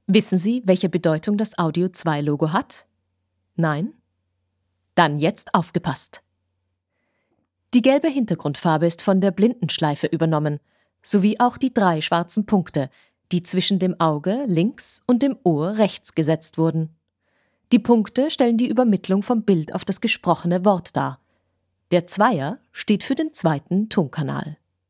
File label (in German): Hochdeutsch Oesterreichisches Deutsch; sprechproben